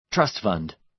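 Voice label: "trust fund", American English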